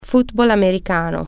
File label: football, italian